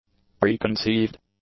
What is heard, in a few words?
"preconceived", english; adjectives